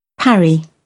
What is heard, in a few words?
"parry", British English